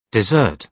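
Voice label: "dessert", British English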